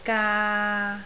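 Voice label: "gaa3", Cantonese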